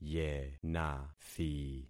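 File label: "yenathi", concatenated syllables; Affixing experiment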